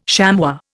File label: "chamois", Indian